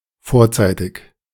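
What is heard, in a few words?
"vorzeitig", German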